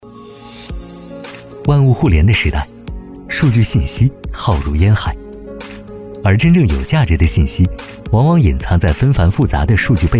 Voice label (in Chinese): 科技感; 万物互联